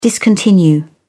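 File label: "discontinue", English, British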